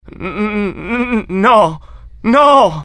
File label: fear, IT; mohaa; dialogue